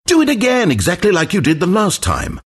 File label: announcer respawn; stanleyparable; Do it again